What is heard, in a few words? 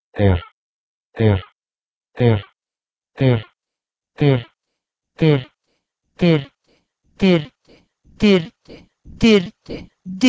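Proto-Indo-European derH to Lithuanian dirti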